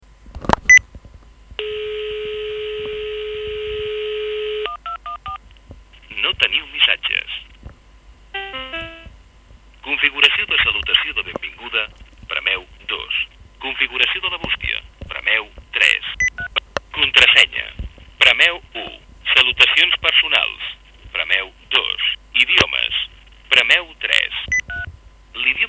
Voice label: answerphone